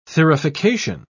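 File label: "thurification", British English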